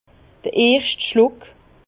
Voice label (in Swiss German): "schluck", Bas Rhin; vin; première gorgée